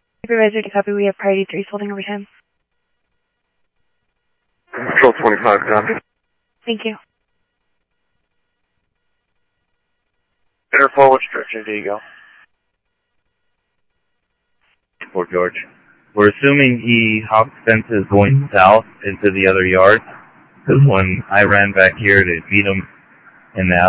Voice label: Phoenix PD, scanner audio